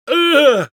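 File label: Engineer pain sharp, Spanish; Engineer audio responses/es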